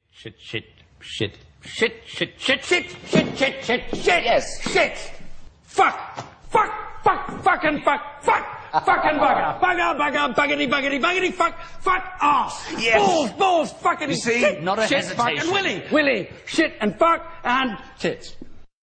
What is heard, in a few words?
Speech Exercise